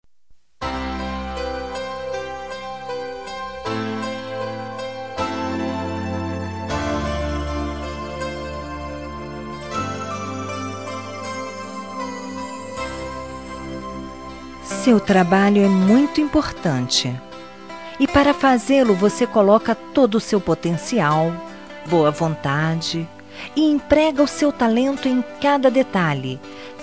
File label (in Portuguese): homensagem profissional fem